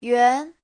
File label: "yuan2", Chinese